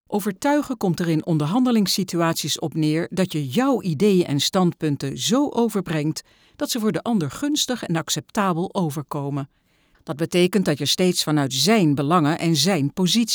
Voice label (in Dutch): e-learning; outreachend werk